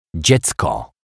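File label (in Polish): "dziecko", Polish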